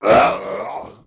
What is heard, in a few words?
Lizard voice lob